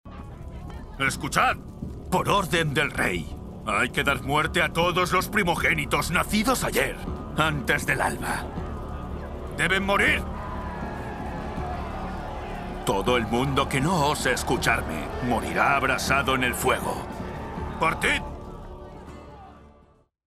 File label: locutor spanish voiceover; Actores y actrices de doblaje